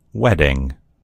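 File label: "wedding", American English; gcompris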